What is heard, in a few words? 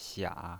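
"xia", pinyin voice, third tone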